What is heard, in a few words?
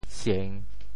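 "sieng3", Teochew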